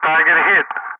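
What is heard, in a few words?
"target hit", English, Wingman; Ka-50; DCS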